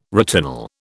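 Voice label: "retinol", British English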